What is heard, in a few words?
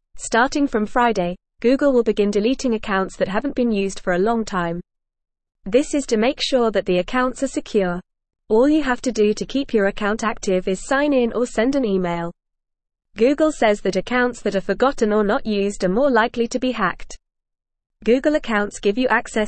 English Newsroom Upper Intermediate FAST Reading; Google to Delete Inactive Accounts for Security